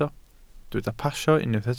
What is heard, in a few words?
"pasio", Welsh